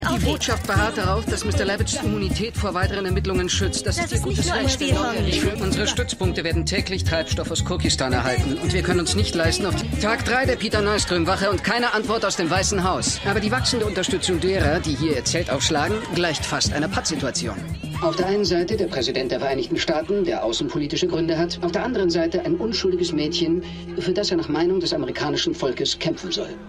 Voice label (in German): Reporter Reporterin; Scandal; WIXA 10